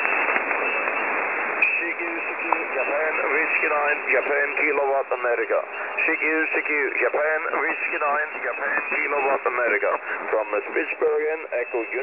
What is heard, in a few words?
qso 14253khz